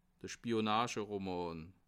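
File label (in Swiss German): "spionageroman", Bas Rhin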